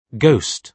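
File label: "ghost", British English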